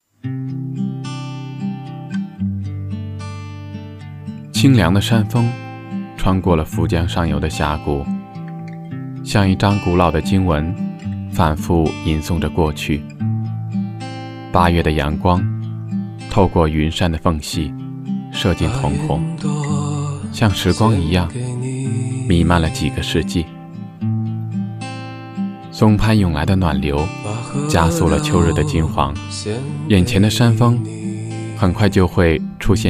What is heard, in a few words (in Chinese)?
男 旁白-清凉的山峰（叙述）